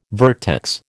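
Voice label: "vertex", American English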